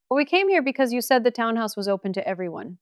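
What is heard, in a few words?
VoiceActing Spanish, female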